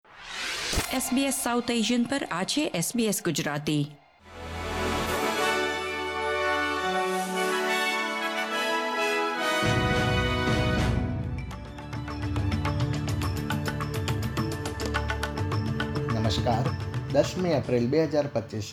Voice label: gujarati news bulletin; ૧૦ એપ્રિલ ૨૦૨૫: ઓસ્ટ્રેલિયાની મુખ્ય અપડેટ; SBS Gujarati